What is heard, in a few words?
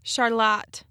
"Charlotte", "char-LOT", Michigan pronunciation